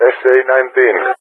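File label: "SA-9", English, GREECE; Allied Flight; AirDefence; DCS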